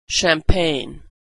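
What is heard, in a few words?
"champagne", American English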